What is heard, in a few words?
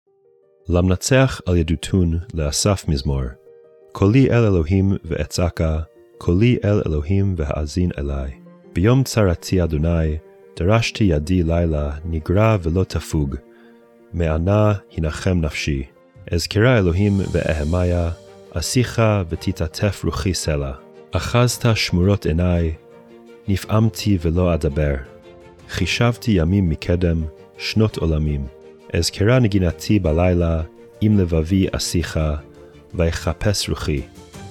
poetry and prayer psalm hebrew; Meir Soloveichik